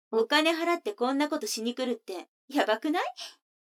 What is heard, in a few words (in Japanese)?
女の子台詞, ASMR